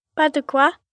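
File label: Yourwelcome French, Etiquette, 1 girl, 10 yrs., French; Talking; Voice Box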